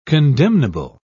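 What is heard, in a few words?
"condemnable", British English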